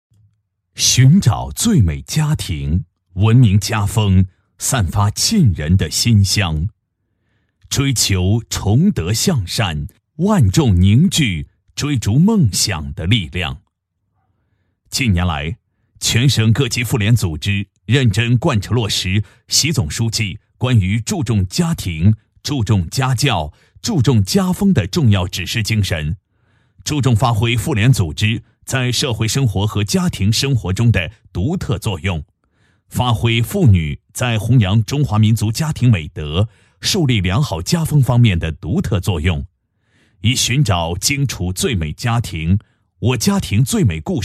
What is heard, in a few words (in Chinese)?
男, 专题片; 家风家教润荆楚